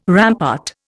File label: "rampart", Indian